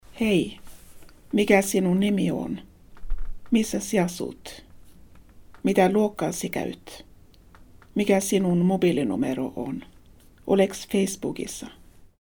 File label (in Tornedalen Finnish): Fraser meankieli; sprakradet